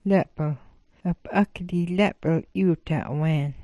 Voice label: "lap'l", smalgyax